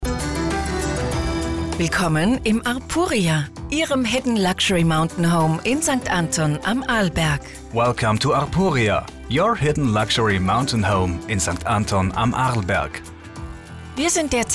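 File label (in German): Hotel Nachtansage Hauptsaison, Telefonanlagen; Hotel Arpuria; telefonansagen hotels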